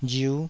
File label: "riu5", taiwanese